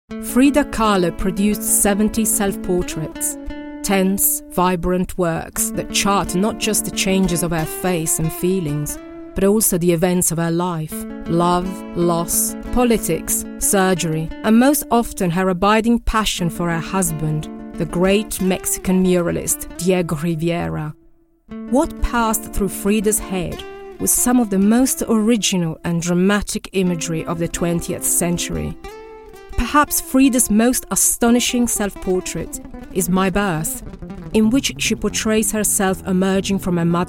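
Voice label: IT AccEN, Italian accented English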